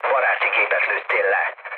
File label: player killed ally, mood low, speech hun